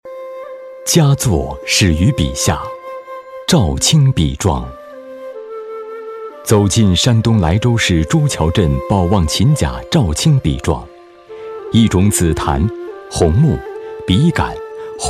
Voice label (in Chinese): 男 纪录专题; 赵青笔庄